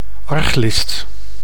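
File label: "arglist", Dutch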